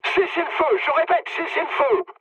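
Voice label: French player friendly fire mood med; War Thunder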